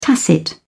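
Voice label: "tacit", British English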